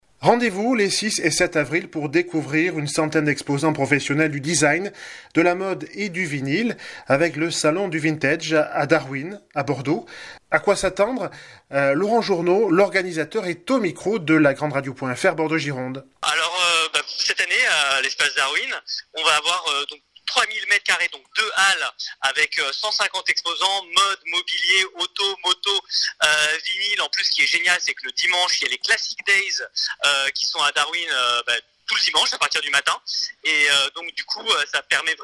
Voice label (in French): INTERVIEW; Salon du vintage Bordeaux; la grande radio